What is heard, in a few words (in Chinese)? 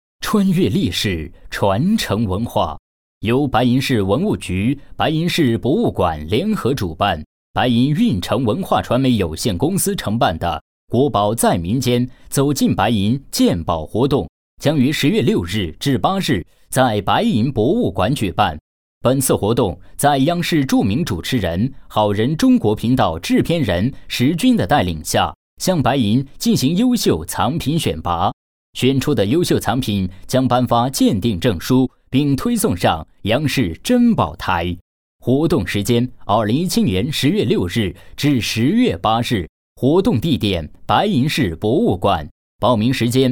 男 栏目推广; 国宝在民间